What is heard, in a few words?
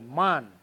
"mahn", Mokilese